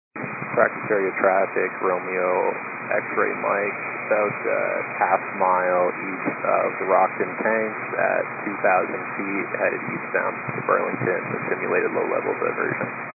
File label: 122 925MHz, scanner radio